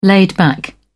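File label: "laid-back", British English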